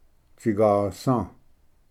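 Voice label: "tsigasv", Cherokee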